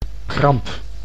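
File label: "kramp", Dutch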